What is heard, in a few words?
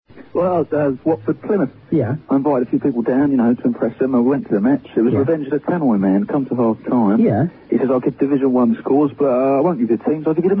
tannoys